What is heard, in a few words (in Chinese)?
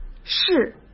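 "是", Mandarin Chinese; chinese-flashcards; hsk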